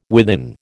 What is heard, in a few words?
"within", American